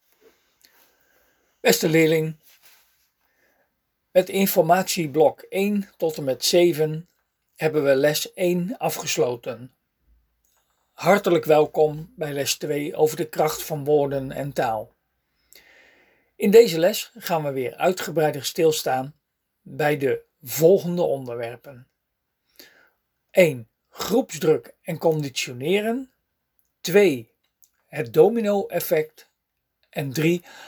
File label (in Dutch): spraak instructie